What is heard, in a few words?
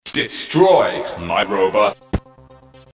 destroy, Commodore64 speech; speech pack; Impossible mission; Commodore64 speech pack variations